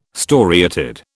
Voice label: "storiated", British